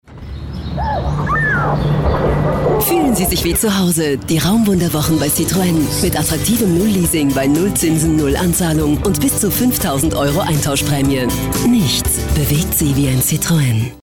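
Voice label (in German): off, sprecher; LOFT VOICES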